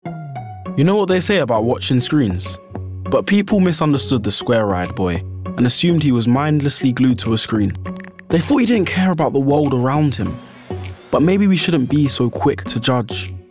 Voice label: Multi-Cultural London, Youthful, Current